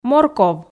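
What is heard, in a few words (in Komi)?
"морков", Komi, female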